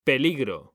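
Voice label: "peligro", Spanish; mekanta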